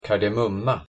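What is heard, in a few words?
"kardemumma", Swedish pronunciation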